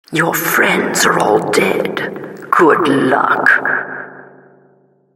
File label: announcer last man alive, vo